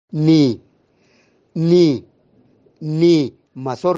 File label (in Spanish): mazorca audio mixteco; vocabulario palabras en mixteco